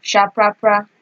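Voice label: "shaprapra", Nigerian Pidgin, female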